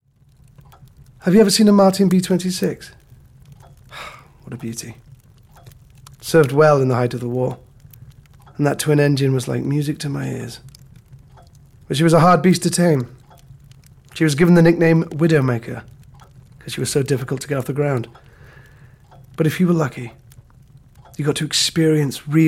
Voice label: Narration (RAF Officer)